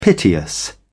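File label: "piteous", British English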